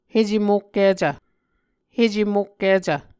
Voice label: "hecemokkicv", muscogee